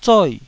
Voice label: "zoi4", taiwanese

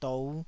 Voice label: "dou5", taiwanese syllables

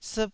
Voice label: "siip4", Taiwanese syllable

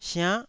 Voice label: "siann9", taiwanese

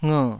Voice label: "nger3", taiwanese syllables

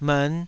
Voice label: "mern5", Taiwanese

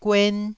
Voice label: "guen1", taiwanese

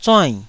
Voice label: "zuainn4", taiwanese

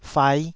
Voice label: "fai5", Taiwanese

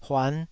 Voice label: "huan5", Taiwanese syllable

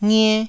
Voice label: "ngie1", taiwanese syllables

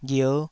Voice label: "ghier5", Taiwanese syllable

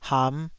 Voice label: "ham5", taiwanese

